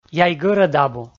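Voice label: "яй гӧрӧд абу", Komi, male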